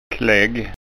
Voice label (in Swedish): "klegg", skanska